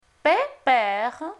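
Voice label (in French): paix — père, French; Phonetique; Voyelles; Lingvo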